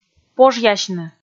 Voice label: "пожъясьны", Komi

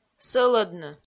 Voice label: "сӧлӧдны", Komi

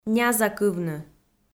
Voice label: "нязакывны", Komi

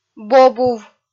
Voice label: "бобув" kpv f

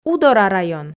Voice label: "удора район", f

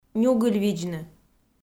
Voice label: "нюгыльвидзны", Komi

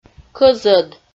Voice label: "кӧзӧд" Komi

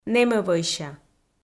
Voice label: "нэмӧвӧйся", Komi

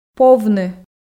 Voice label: "повны", Komi

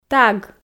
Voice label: "таг", Komi

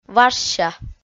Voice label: "важся" kpv f

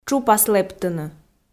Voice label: "тшупас лэптыны", Komi

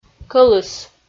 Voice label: "кӧлыс" Komi

